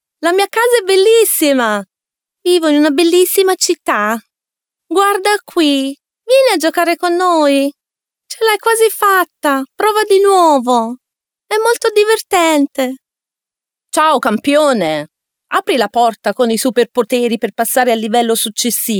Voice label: Female Italian Toys

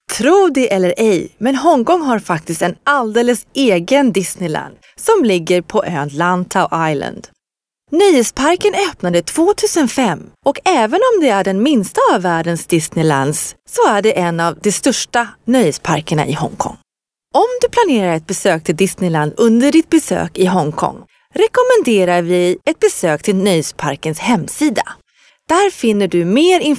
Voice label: Female Swedish

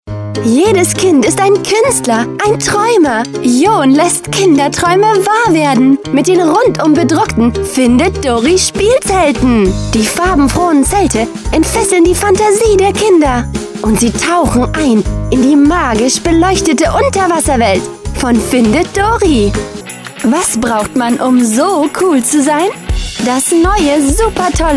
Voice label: DE fem TVC

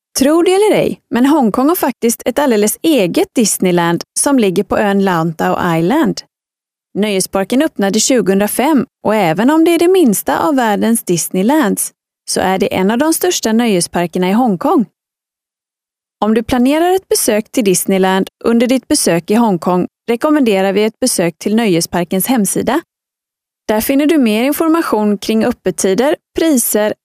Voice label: Female Swedish

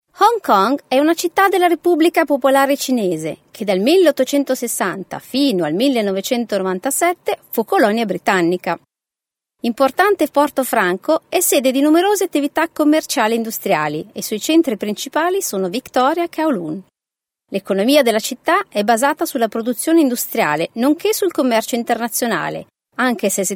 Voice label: Female Italian Other Projects

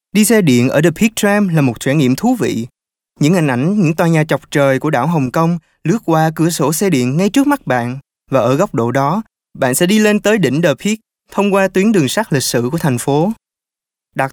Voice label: Male Vietnamese Other Projects

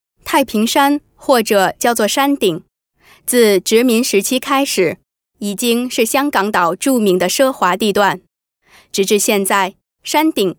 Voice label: Chinese Mandarin Female

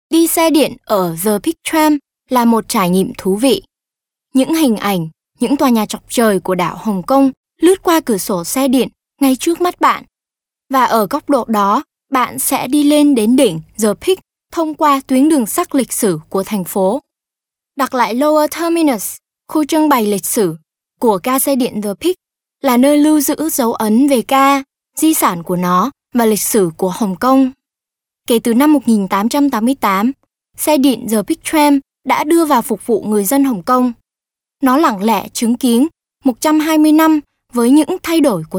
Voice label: Female Vietnamese Other Projects

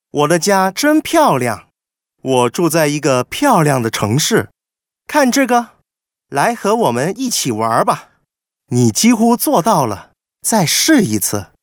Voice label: Toys Chinese Mandarin Male

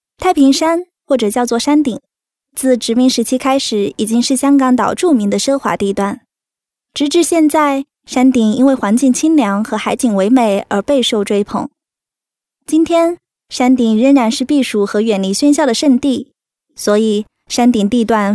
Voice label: Other Projects Chinese Mandarin Female

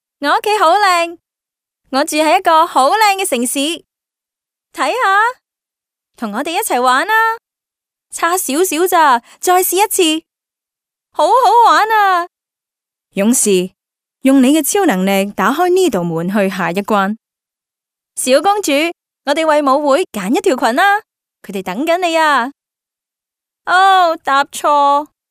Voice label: fem children

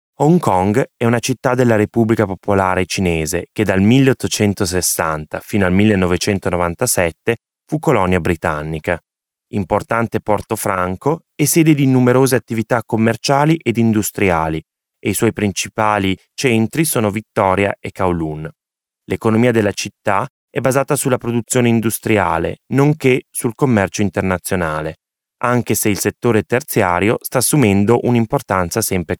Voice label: Male Italian

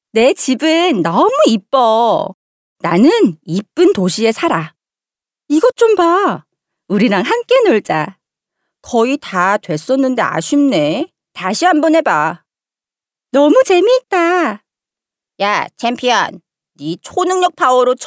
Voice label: Female Korean Toys

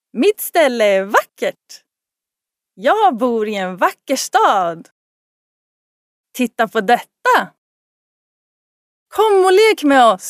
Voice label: Female Swedish Toys

